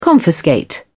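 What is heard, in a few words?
"confiscate", British English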